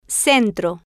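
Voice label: "centro", Spanish, female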